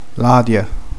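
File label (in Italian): "brutta", Italian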